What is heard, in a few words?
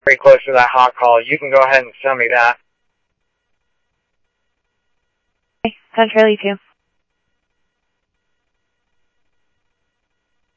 Phoenix PD, scanner audio